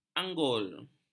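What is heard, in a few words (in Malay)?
"anggul", Malay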